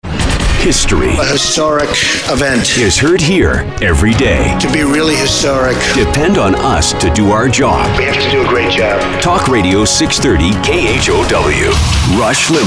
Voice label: Imaging News